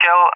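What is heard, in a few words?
"kill", English, RUSSIA; Allied Flight; DCS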